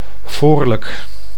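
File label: "voorlijk", Dutch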